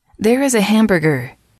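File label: "there is a hamburger", English, female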